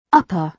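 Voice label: "upper", British English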